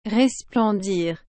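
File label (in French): "resplendir", French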